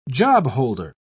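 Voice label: "jobholder", British English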